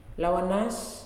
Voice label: "Good Day", Nalik

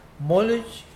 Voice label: "charcoal", Mokilese

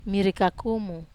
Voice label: "mari kakumu", Wamesa

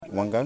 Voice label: "Pied Cormorant", Yimas

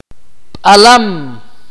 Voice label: "tiger", Akateko

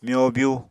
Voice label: "myobyu", Apatani

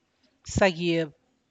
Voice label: jail, za' guieb, Santiago Matatlán Zapotec; carcel

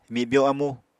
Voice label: "mibyo amu", Apatani

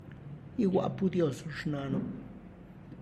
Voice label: say hi to your mom for me, Tlacochahuaya Zapotec; (Spanish) me saludas a tu mamá; igua'pu Dios xhnanu!

